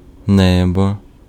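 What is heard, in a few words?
Ukrainian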